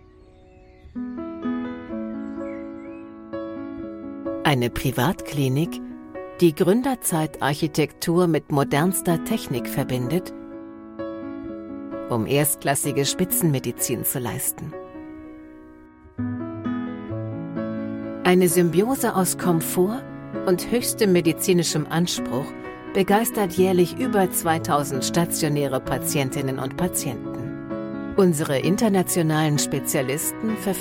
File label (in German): imagefilm klinik